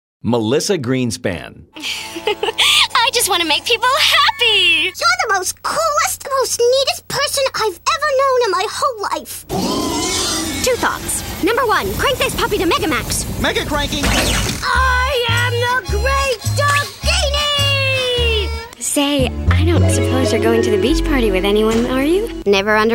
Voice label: animation

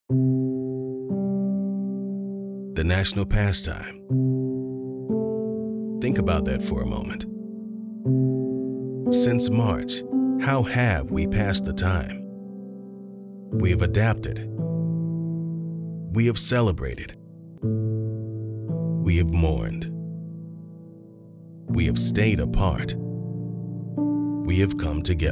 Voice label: narration men sports

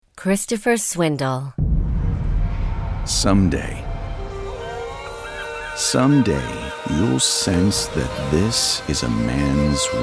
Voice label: commercial, men

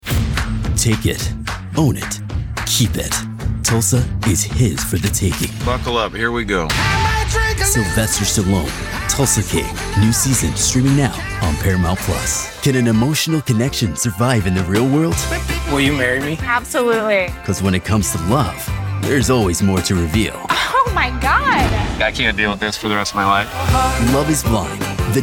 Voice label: promo men

